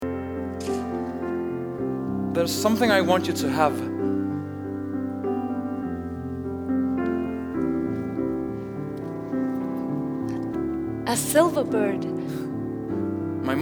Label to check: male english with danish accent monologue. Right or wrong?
Right